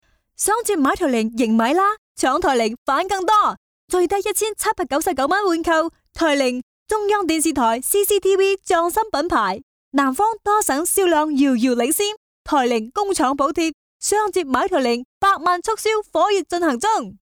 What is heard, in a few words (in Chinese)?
粤语 激情促销, 女